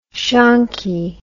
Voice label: "shonky", English